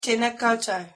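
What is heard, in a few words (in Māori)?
"tēnā koutou", Maori pronunciation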